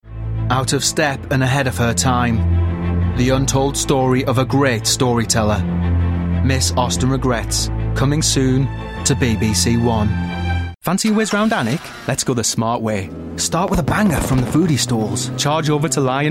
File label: commercial